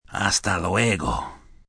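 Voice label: See You Later Spanish Male, Goodbyes, male, foreign, Spanish 'Hasta luego' (See you later); (human voice speaking)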